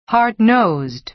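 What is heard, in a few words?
"hard-nosed", American English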